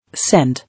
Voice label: "Send", English